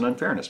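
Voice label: "unfairness", US English; pronunciations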